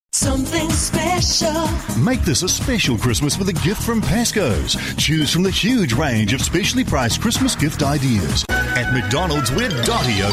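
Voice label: English Australian, male, commercial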